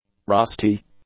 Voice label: "rusty", English; adjectives